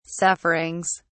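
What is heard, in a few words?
"sufferings", British English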